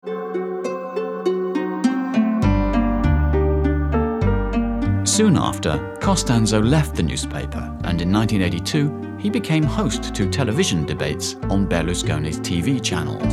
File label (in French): Documentaire